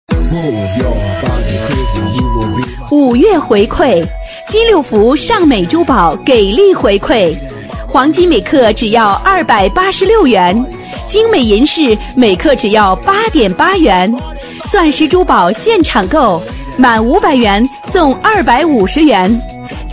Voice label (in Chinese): 女 促销; 金六福